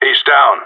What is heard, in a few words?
enemy down, radio; GSG9